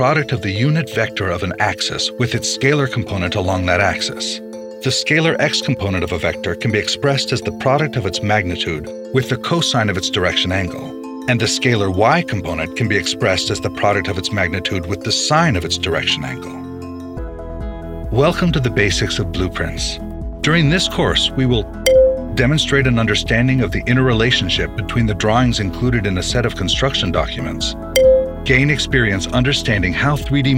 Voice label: e-learn mix